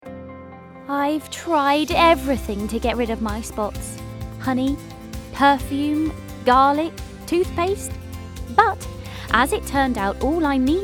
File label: VO Female